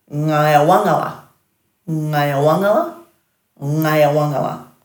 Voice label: "ngayawangala", Dhurga

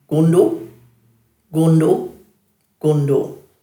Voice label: "gundu", Dhurga

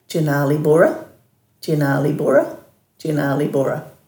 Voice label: "djinalibura", Dhurga

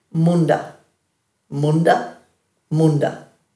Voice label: "mundha", Dhurga

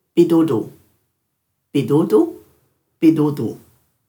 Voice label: "bidhudhu", Dhurga

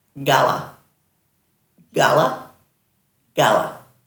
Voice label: "gala", Dhurga

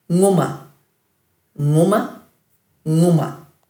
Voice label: "nguma-", Dhurga

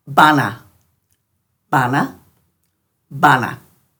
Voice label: "bana", Dhurga

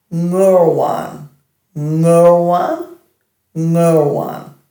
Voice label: "ngurlwan", Dhurga

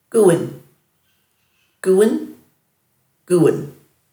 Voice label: "guwinj", Dhurga

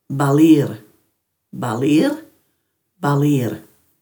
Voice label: "balirr", Dhurga

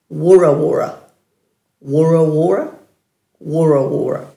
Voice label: "wurawura", Dhurga; dulagaal

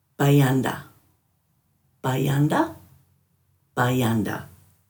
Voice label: "bayandha-", Dhurga

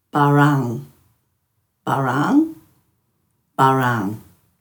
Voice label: "baraang", Dhurga

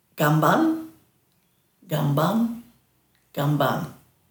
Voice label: "gambanj", Dhurga

